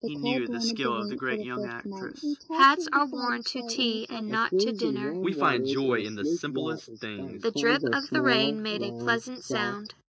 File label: anechoic; SiSEC08; SiSEC headgeometry